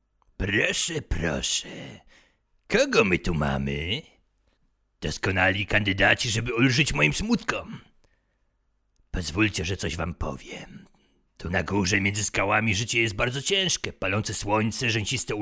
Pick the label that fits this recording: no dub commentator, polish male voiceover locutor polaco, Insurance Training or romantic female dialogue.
polish male voiceover locutor polaco